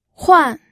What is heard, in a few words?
"huan4", pinyin audio